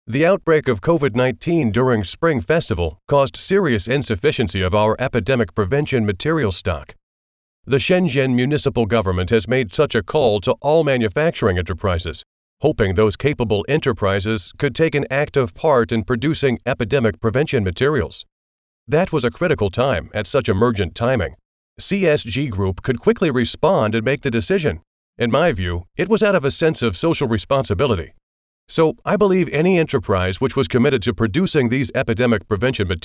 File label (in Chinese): 受访发言